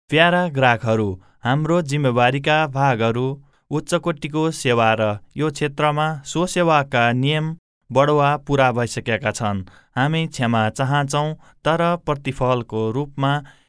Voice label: Nepali; קריינות